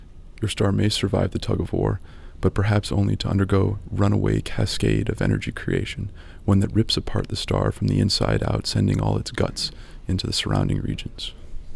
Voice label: narration